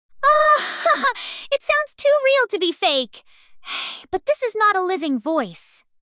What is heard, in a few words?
fake voice; OmniVoice